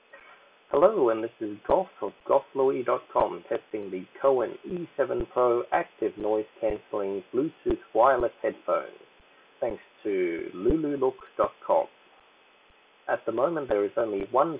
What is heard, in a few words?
mic csr; cowin e7